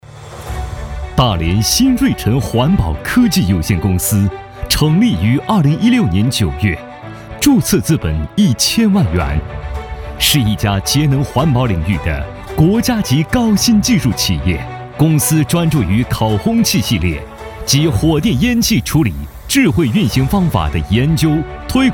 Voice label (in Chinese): 企业 宣传片 大气 朝气